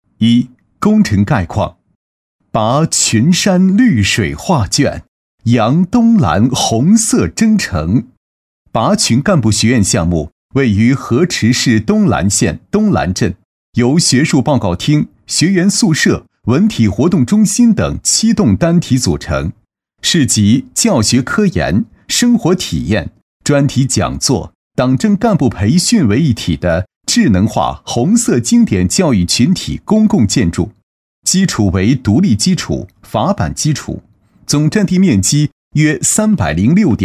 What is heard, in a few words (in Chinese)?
男-工程项目-大气沉稳, 年轻厚重 沉稳大气; 拔群干部学院项目